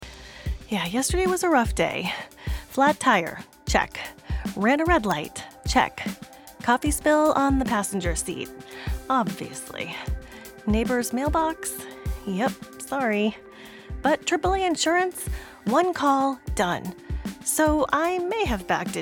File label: w Music